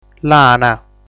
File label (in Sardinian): "Lana", sardu